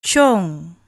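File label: "cong", Malay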